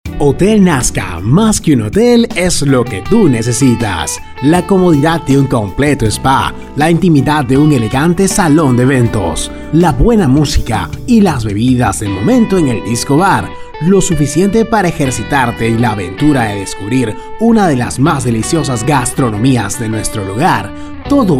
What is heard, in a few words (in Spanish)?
SPOT PUBLICITARIO